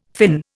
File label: "Finn", Indian